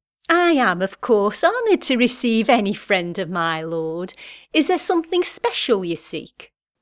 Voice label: Character Pseudo Posh Lady